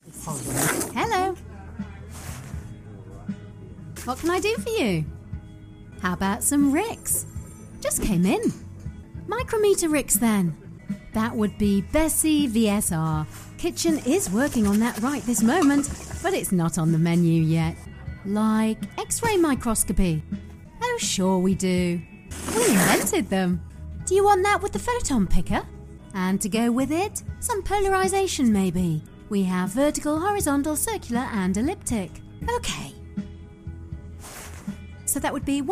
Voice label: voice artist animation dialogue